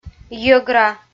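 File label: "йӧгра", Komi, female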